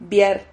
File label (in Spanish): "viar", Silabas